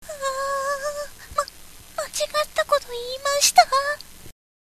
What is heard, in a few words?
girl